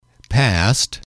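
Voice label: "past", English, male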